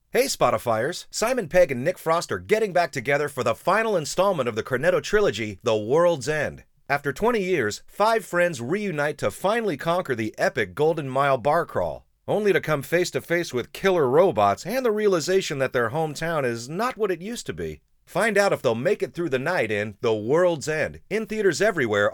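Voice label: US, commercial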